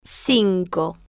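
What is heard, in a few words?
"cinco", Spanish, slow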